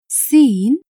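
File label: "siin", Arabic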